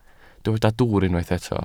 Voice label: "dwwr", Welsh

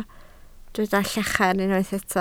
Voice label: "llachar", Welsh

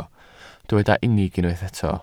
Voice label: "unig", welsh

